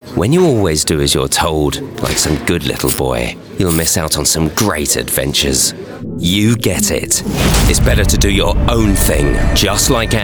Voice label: UK English; Duvel Eigenzinnigheid